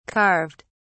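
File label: "carved", English, British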